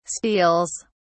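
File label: "steals", British English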